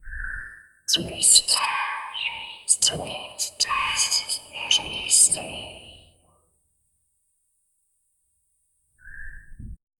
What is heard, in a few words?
the creature whispers